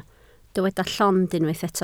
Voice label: "llond", Welsh

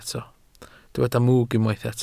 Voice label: "mwg", Welsh

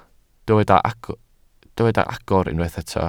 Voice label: "agor", Welsh

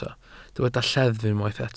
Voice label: "lleddf", Welsh

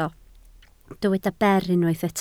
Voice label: "ber", Welsh

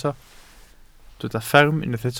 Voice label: "fferm" Welsh

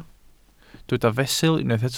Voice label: "fesul", Welsh

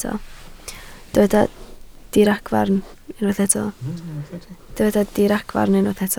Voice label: "diragfarn", Welsh

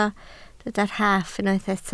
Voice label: "rhaff" Welsh

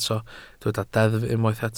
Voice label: "deddf" Welsh

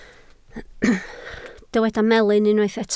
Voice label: "melyn", Welsh

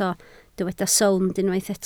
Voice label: "sownd" Welsh

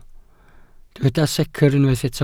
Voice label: "secr" Welsh

